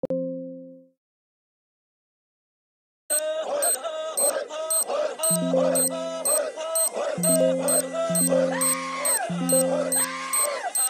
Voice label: akbar ahhhh